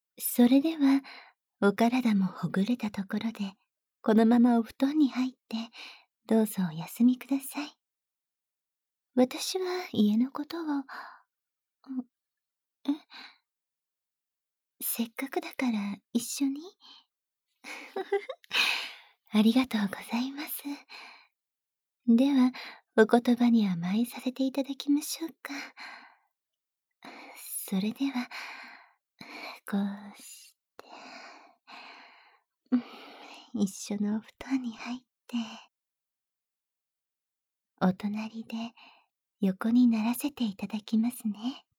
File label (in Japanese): 添い寝; 雪女のお嫁さん弐～お前さんの望むままに、鈴の助平な穴でうんと悦ばせて差し上げますわ♪～; 効果音なし